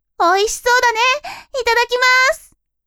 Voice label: woman